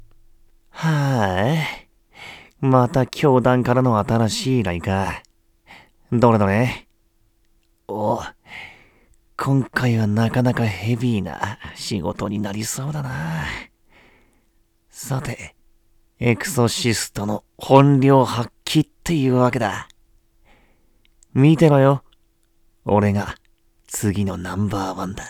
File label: male; 青年のつぶやき